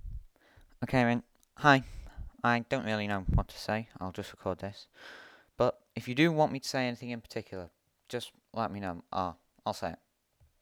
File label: voice, Accent